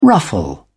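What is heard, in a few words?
"ruffle", English, British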